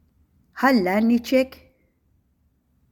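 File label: "Хəллəр ничек?", Tatar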